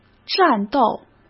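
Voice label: "战斗" Mandarin Chinese; hsk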